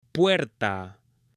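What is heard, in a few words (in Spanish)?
"PUERTA", hombre; MUEBLES; AppLenguaje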